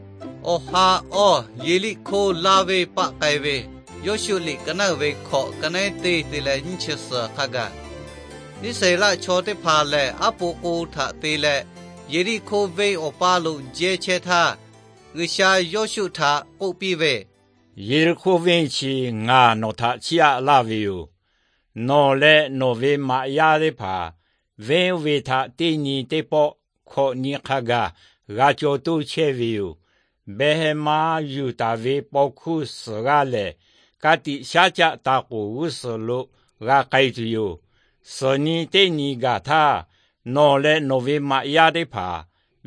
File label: victory through god lahu na, nt drama